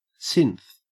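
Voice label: "synth", Australian English; pronunciation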